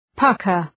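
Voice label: "pucker", English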